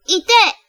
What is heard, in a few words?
"Go", Japanese